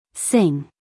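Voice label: "sing", American English, female; everyone-can-use-english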